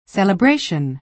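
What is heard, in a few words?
"celebration", American English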